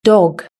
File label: "dog", British English